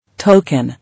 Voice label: "Token", English

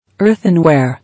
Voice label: "Earthenware", English

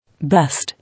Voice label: "Best", English

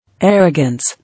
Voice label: "Arrogance", English

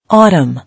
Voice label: "Autumn", English

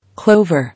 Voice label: "Clover", English

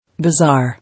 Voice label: "Bizarre", English

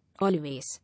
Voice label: "Always", English

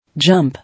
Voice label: "Jump", English

